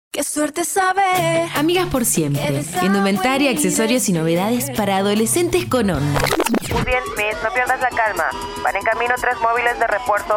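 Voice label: Spanish commercial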